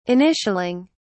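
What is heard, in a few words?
"initialing", British English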